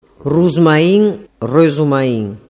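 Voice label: "rusmain rosumain", Sicilian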